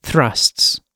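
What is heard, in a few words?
"thrusts", British English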